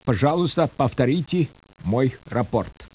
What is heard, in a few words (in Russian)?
SPEECH, RUSSIAN